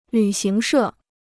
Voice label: "旅行社", Chinese